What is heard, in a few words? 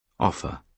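"offer", British English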